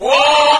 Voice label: wah group of men; ooh ahh